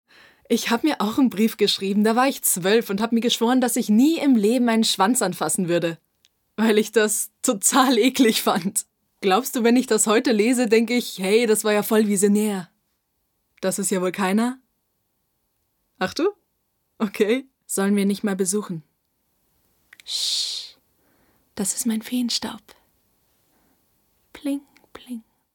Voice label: Real Synchron Art House Flappsig

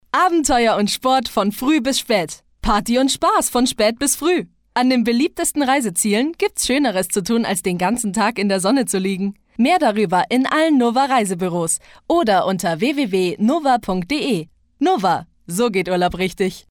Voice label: Werbung Energetisch

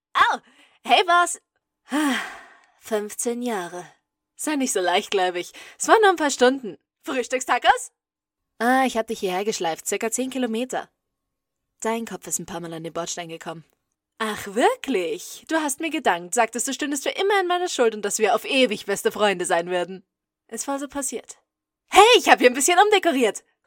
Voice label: Animation Aufgekratzt

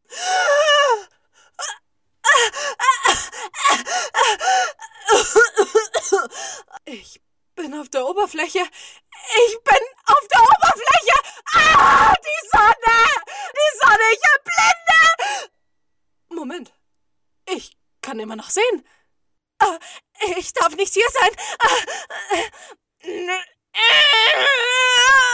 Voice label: Animation Panisch

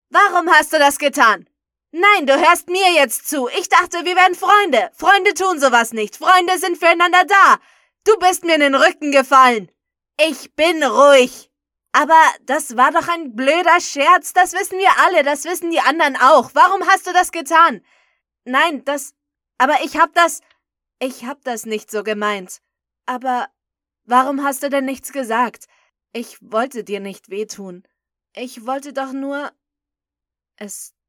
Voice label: Anime Jugendlicher Junge

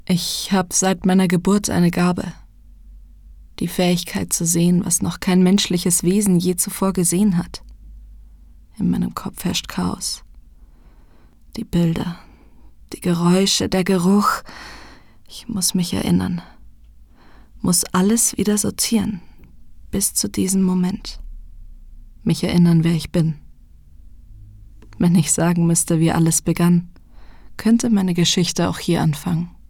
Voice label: Gametrailer Drama

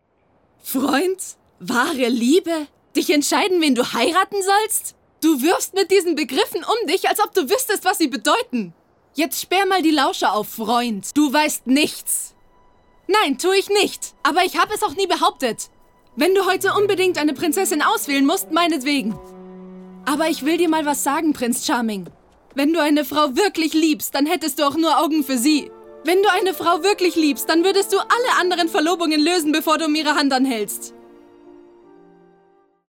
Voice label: Animation Emotional

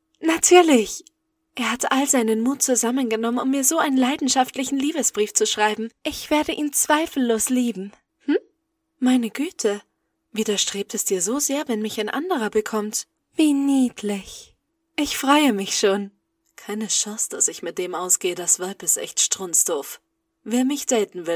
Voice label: Anime Hinterlistig

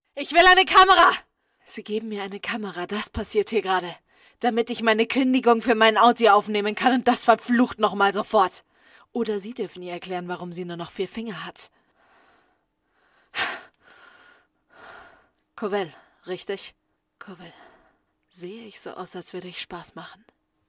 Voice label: Real Synchro Drohend

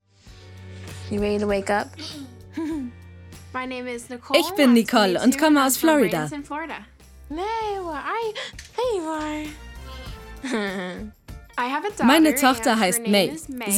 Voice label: Voice Over Fröhlich

